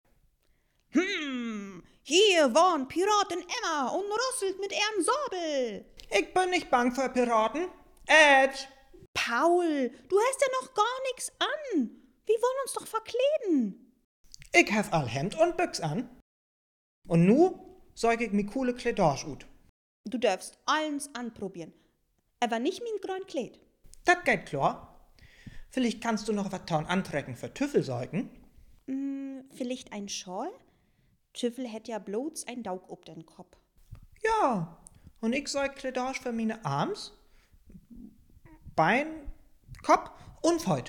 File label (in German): Dialog, niederdeutsch